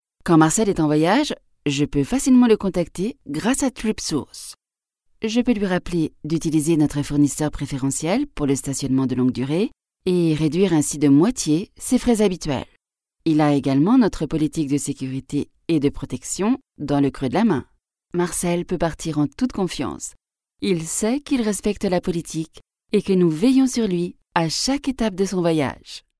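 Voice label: French France, female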